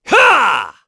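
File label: Vox Casting, Korean; Lusikiel; kingsraid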